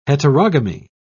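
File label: "heterogamy", English, British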